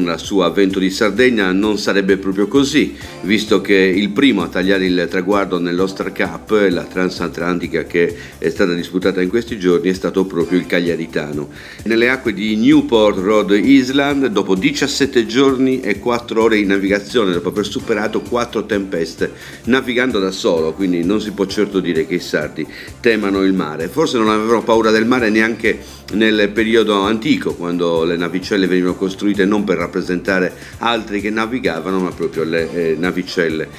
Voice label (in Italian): Sport News; Radiolina